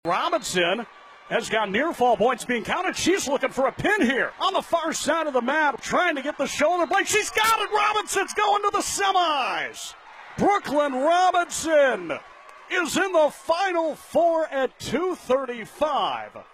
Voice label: Radio Call